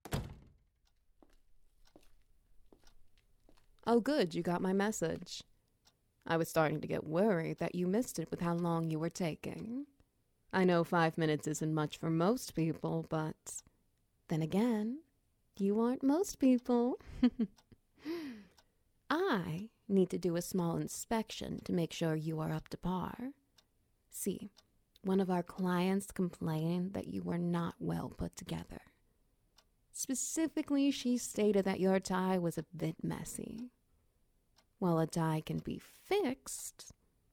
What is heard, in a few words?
Ambience SFX Wet Noises; Office Dress Code